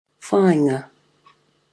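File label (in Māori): "whainga", Māori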